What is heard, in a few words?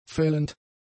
"fällend", German; adjectives